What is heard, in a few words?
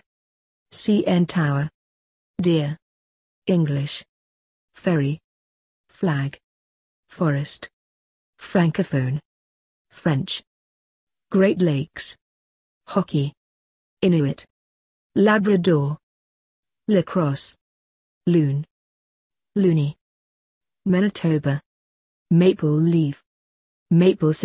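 British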